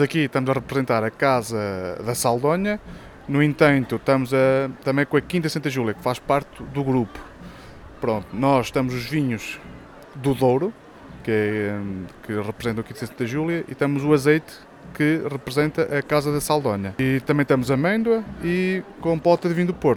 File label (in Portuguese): som peça expositores vox pop